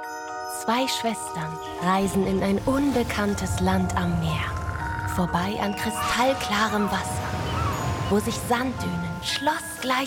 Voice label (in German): Gute Nacht Geschichte; TUI; DISNEY; Frozen2